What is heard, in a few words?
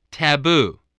"taboo", English